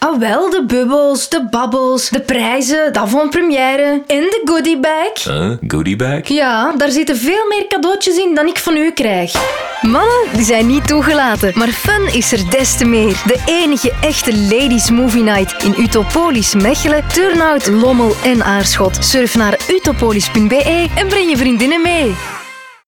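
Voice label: Flemish female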